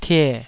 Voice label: "tie3", Taiwanese

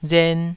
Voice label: "ren2", taiwanese

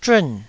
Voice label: "zhun4", taiwanese

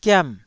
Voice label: "giam4", Taiwanese syllable

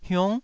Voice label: "hiung9", taiwanese syllable

